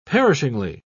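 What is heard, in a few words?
"perishingly", British English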